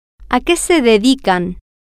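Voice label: Spanish, female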